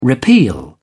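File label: "repeal", British English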